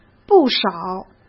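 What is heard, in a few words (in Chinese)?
"不少", Mandarin Chinese; hsk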